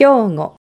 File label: "hyogo", Japanese